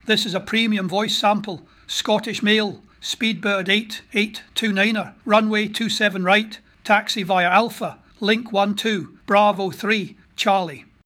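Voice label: Scottish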